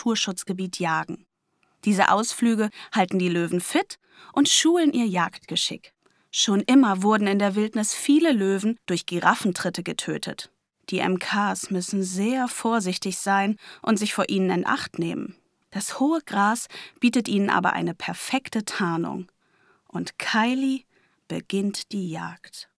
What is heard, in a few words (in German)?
Doku Raubkatzen